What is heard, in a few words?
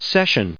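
"session", US English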